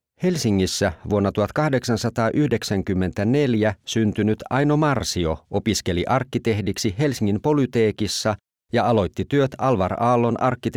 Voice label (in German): finnisch stimmgerecht